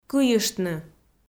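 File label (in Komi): "кыйыштны", Komi, female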